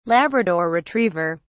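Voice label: "labrador retriever", British English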